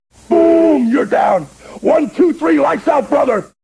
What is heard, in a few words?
smasher happy; speech; fertig-classic-games; Defender